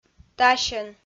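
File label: "дасьӧн", Komi, female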